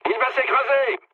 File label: aircraft destroyed mood low, speech fr